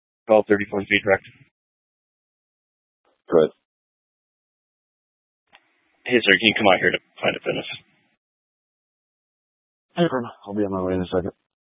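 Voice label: Police Dispatch, Scanner audio; Police 1 Dispatch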